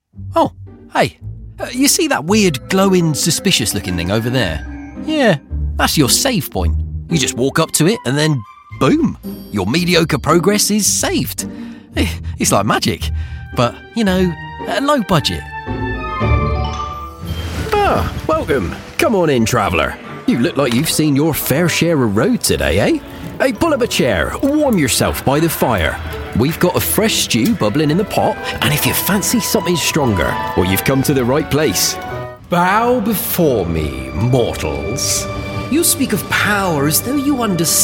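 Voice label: videogame, British-Westcountry Male Voice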